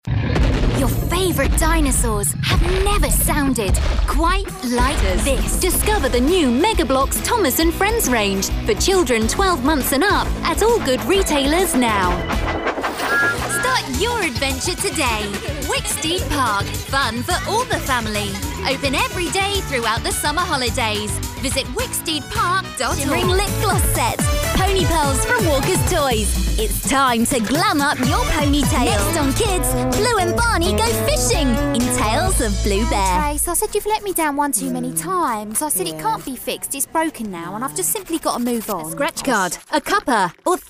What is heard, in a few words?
commercial